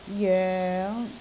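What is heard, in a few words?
"joeng5", Cantonese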